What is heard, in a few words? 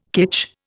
"kitsch", Indian